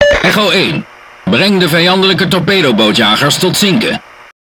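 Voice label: Speech Tutorial, Dutch; World Of Warships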